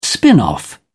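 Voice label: "spin off", British English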